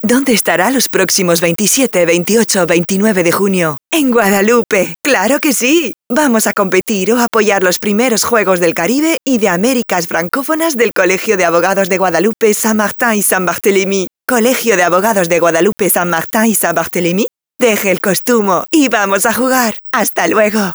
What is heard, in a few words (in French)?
VOIX FEM Espagnol Adulte Dynamique